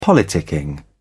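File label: "politicking", British English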